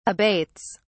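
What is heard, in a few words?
"abates", British English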